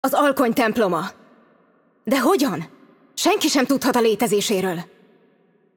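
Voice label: voice over, Hungarian; Game Outro; bloom minigame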